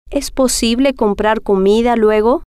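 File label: es Posible Comprar Comida Luego, Spanish, female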